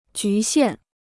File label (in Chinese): "局限", Chinese